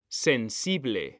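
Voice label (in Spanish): "sensible", male; mekanta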